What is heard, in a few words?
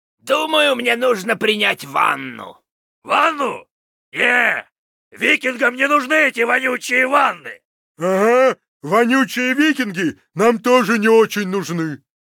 Pissed; Heroes of the Storm; Lost Vikings